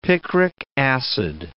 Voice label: "picric acid", English